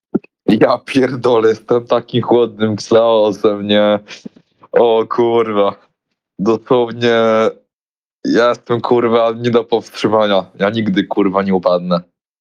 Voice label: voice message